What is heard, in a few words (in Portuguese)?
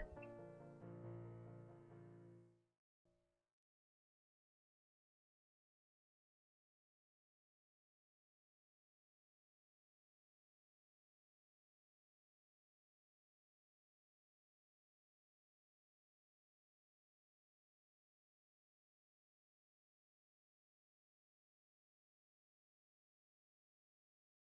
Anuncio ao Amor